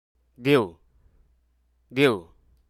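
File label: "big", Hruso Aka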